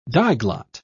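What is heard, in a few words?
"diglot", en, British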